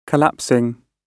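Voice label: "collapsing", British English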